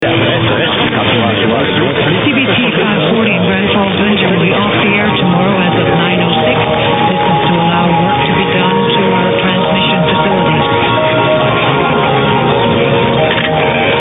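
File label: off air announcement; 540; cbc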